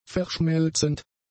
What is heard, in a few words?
"verschmelzend", German; adjectives